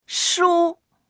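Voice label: "shu1", Mandarin Chinese; 书; 本、書籍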